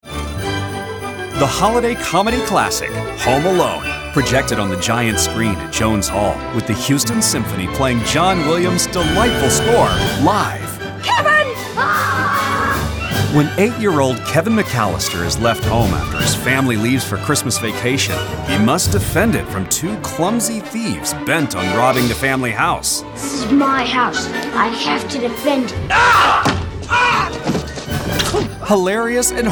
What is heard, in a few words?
Radio Spot; Home Alone